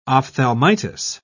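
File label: "ophthalmitis", British English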